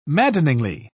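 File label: "maddeningly", British English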